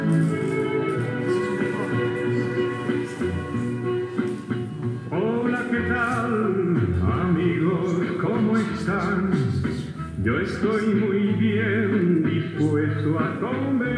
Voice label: radio